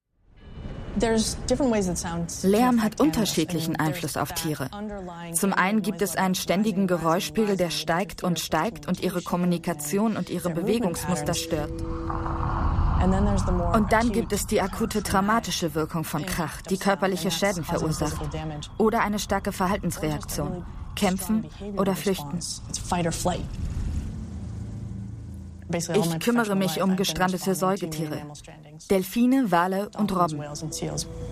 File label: Voice Over